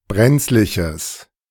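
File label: "brenzliches", German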